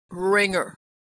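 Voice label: "ringer", American English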